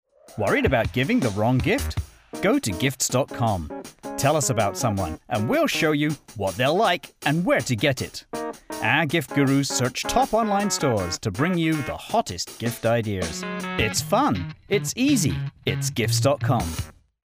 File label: ad; Gifts